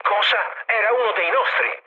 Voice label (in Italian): player killed ally, mood low, speech it